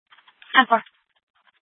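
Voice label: Police Department North Dispatch, scanner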